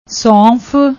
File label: "sonf", Punjabi